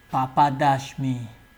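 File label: "papa dash me", Nigerian Pidgin, male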